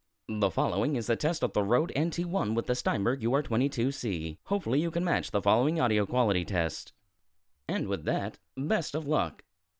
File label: rode nt1 with steinberg ur22c audio test